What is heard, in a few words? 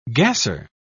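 "gasser", British English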